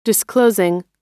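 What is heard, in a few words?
"disclosing", American English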